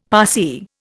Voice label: "Parsee", Indian; Dictionary